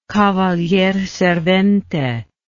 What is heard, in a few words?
"cavalier servente", English